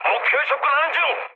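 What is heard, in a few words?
Korean near waypoint mood high, speech; War Thunder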